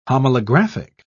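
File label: "homolographic", British English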